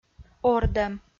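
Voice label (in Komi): "ордӧм", Komi, female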